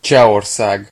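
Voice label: "czechia", Hungarian; gcompris; geography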